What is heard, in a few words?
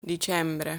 "Dicembre", Italian